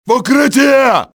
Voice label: take cover, russian soldier; XBOX